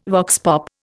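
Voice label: "vox pop", Indian English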